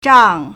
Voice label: "zhang4", Chinese